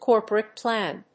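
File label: "corporate plan", English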